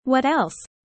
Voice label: fast